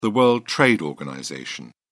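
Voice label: "world trade organization", British English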